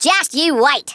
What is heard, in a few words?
JUST YOU WAIT, Australian; Worms Armageddon